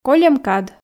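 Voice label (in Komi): "кольӧм кад", Komi, female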